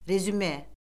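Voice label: "rezüme", Azerbaijani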